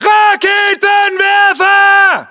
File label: US threat rpg, battlechatter